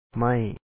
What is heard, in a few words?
"ໄມ້", Lao; ma1; ma1y